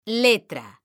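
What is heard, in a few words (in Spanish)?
"LLETRA", female; mekanta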